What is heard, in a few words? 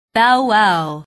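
"bowwow", English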